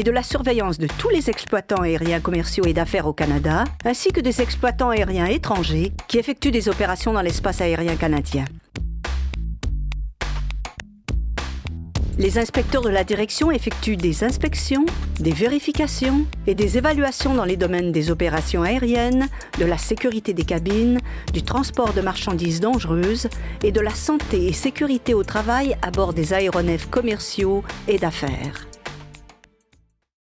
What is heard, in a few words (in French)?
Narration aviation commerciale